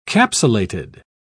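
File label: "capsulated", English, British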